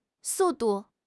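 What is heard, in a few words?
"su4 du4", Chinese; HSK4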